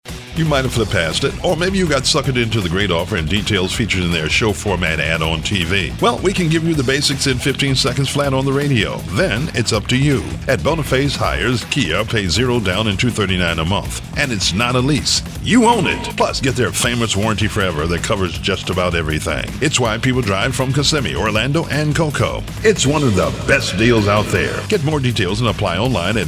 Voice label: COMMERCIALS